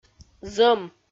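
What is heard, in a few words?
"зӧм", Komi, female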